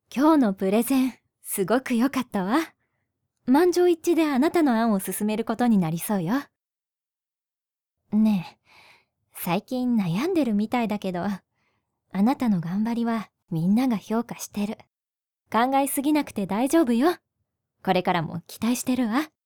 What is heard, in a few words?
female